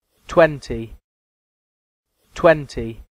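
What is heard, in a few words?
Twenty British English pronunciation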